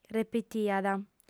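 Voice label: "repitiat", female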